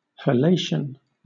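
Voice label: "halation" British English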